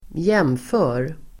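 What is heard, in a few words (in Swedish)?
"jfr", Swedish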